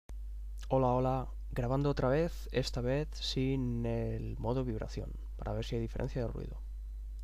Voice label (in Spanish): Prueba micrófono; Gamdias Hebe M1 RGB